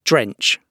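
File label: "drench", British English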